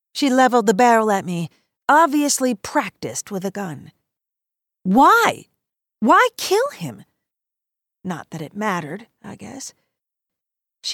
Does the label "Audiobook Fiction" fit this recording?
Yes